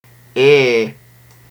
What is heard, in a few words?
"aé", Tigall